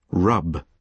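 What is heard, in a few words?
"rub", British English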